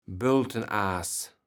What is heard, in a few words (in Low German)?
"Böltenaas", kaevels platt